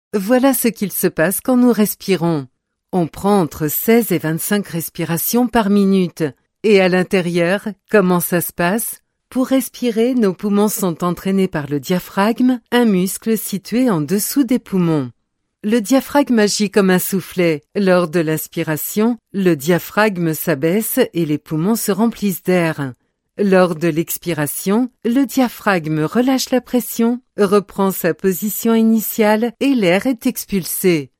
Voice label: french corporate videos

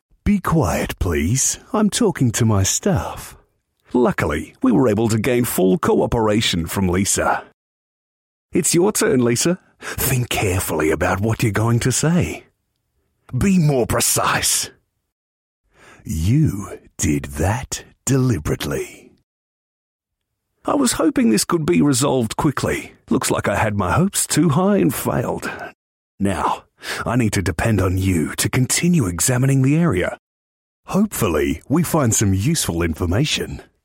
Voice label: english australian video games

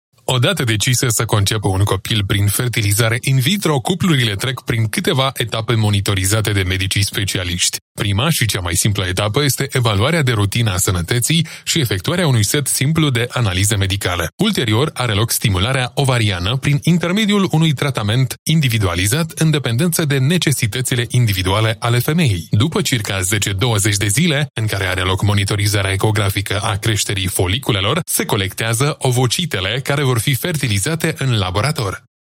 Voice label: romanian documentaries

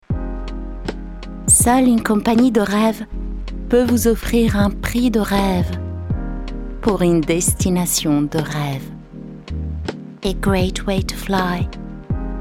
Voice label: french promos

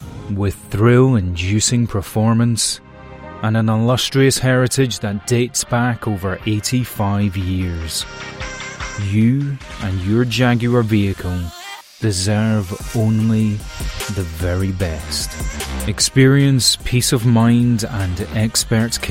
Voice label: english british automotive

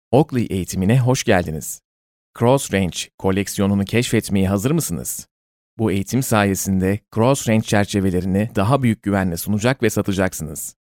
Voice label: turkish corporate videos; turkish voice over talent

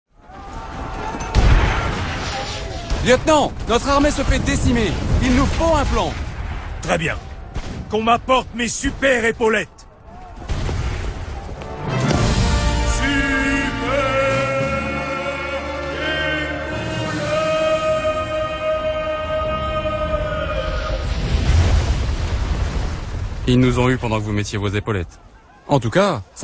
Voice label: french video games

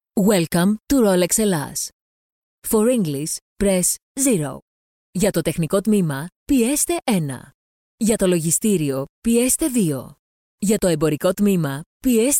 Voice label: greek ivr

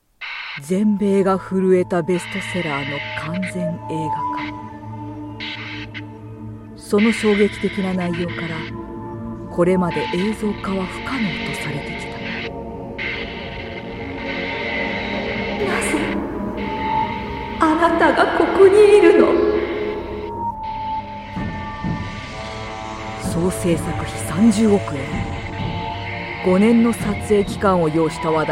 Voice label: japanese movie trailers

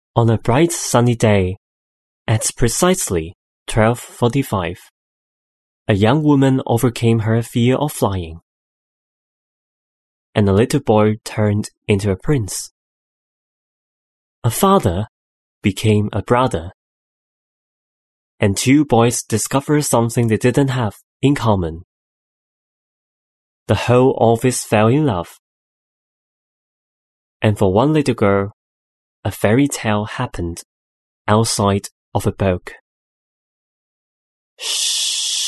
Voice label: english commercial

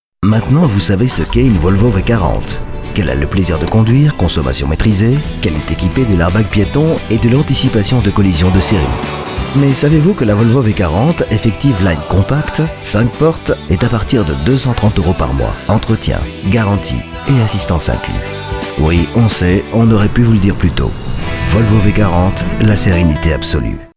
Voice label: french movie trailers

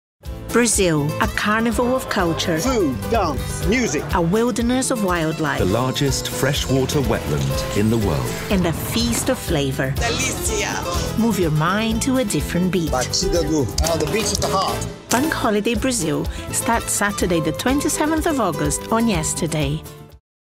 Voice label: english international promos

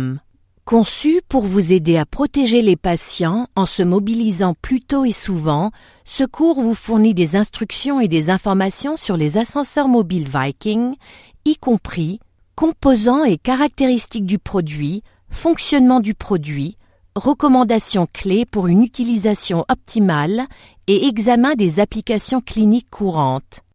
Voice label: french e-learning

